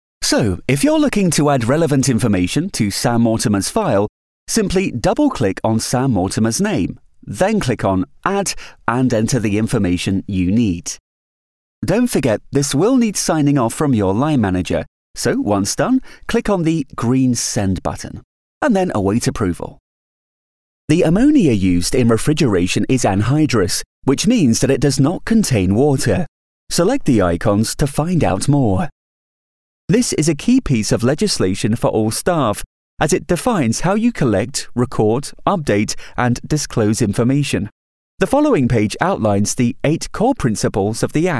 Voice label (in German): Eng Uk Neutral; Fremdtake